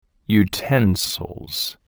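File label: "utensils", English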